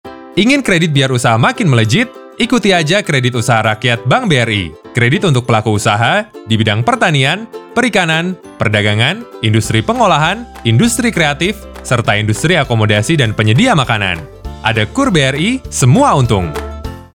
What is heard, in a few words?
Indonesia casual